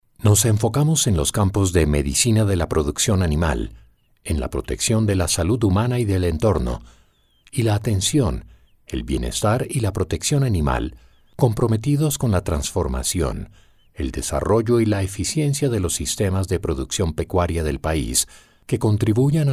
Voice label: spanish

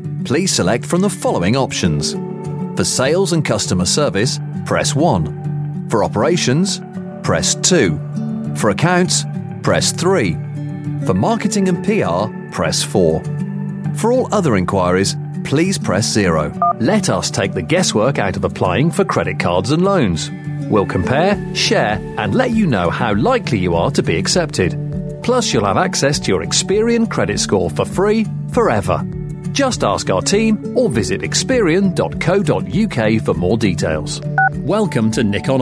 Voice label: on-hold

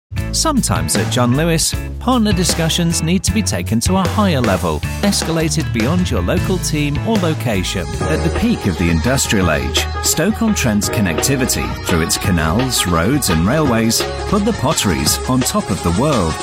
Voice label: corporate chatty dynamic reassuring mix

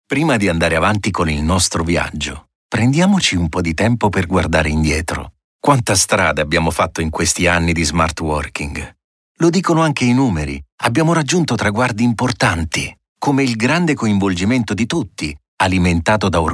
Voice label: italian male voice corporate

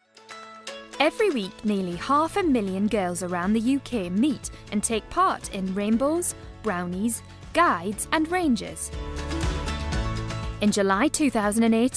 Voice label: ne accent

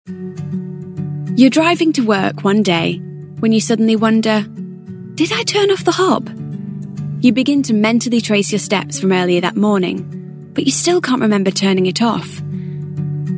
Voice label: audiobook

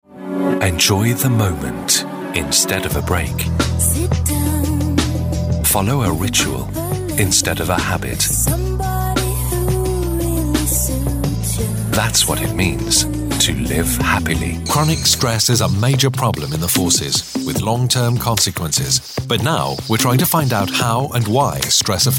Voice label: commercials

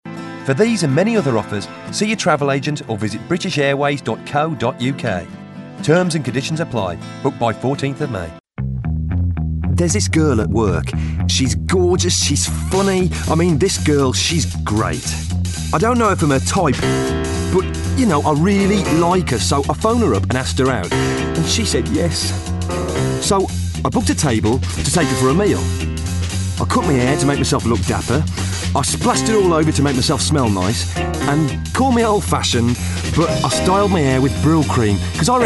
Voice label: brummie accent

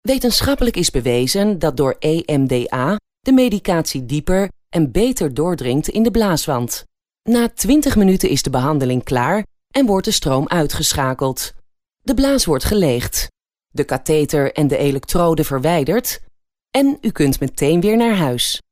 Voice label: dutch female elearning

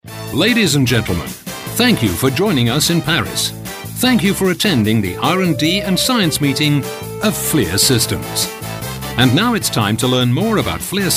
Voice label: voice of god

